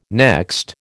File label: "next", American